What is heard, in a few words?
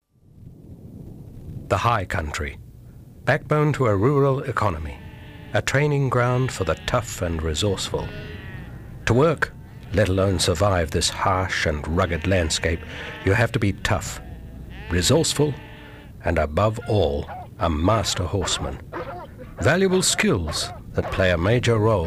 Narration